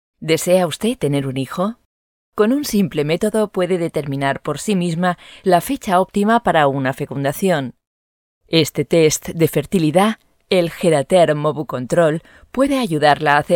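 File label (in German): Spanish Kommentar Freundlich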